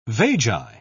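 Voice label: "vagi", British English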